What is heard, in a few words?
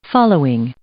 "following", English; adjective